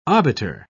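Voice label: "obiter", British English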